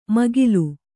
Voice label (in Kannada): "ಮೇಖಲೆ", Kannada